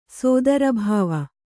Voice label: "ಸೋದರಭಾವ", Kannada